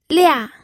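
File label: "lia", fourth tone, pinyin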